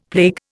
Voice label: "plague", Indian; Dictionary

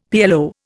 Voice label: "PLO", Indian; Dictionary

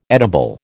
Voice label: "edible", American

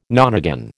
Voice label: "nonagon", American

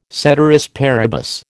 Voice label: "ceteris paribus", American

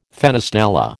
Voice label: "fenestella", American

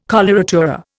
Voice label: "coloratura", Indian

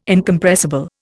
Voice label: "Incompressible", Indian

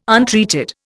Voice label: "untreated", Indian

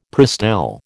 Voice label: "Prestel", American

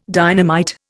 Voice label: "dynamite", Indian

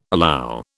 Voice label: "allow", British

